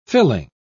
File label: "fill-in", pronounce